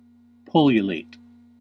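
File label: "pullulate", US English; pronunciations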